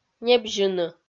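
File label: "небзьыны", Komi-Zyrian, female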